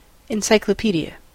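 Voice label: "encyclopaedia", American English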